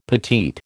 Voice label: "petite", American